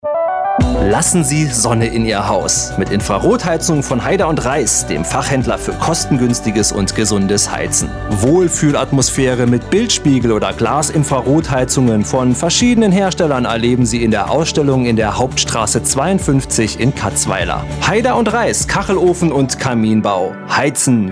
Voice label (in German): reiss radiospot